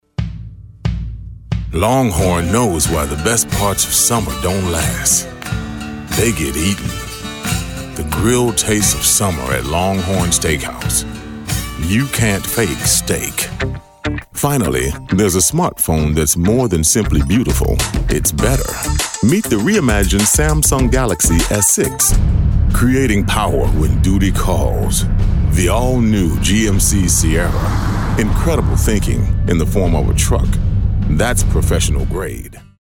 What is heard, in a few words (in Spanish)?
USA Masculino